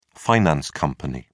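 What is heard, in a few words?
"finance company", British English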